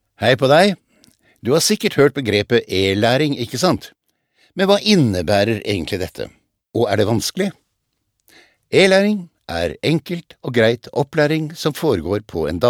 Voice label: no elearning